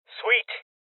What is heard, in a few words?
Voices Loot Collect Male Young; rebel cops 2019